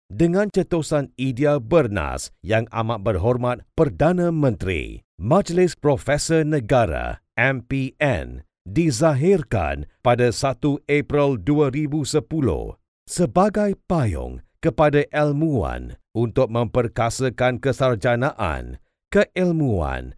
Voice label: Malay Male